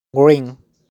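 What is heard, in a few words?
"Green", pronunciation; Colores; colors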